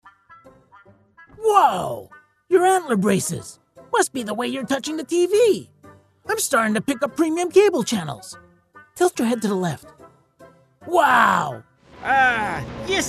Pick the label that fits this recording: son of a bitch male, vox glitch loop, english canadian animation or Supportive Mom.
english canadian animation